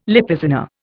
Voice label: "Lippizaner", Indian

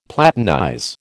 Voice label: "platinize", American

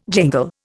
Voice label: "jingle", Indian

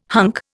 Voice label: "hunk", Indian

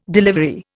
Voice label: "delivery", Indian

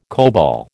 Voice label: "COBOL", American

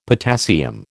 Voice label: "potassium", American

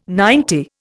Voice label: "ninety", Indian

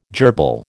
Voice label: "jerbil", American

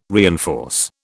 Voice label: "reinforce", British

